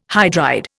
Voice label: "hydride", Indian

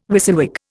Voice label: "WYSIWYG", Indian

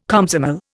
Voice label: "Komsomol", Indian

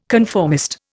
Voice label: "conformist", Indian

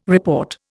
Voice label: "report", Indian

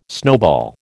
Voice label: "snowball", American

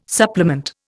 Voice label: "supplement", Indian

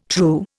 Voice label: "true", Indian

